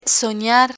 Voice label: "sonar", spanish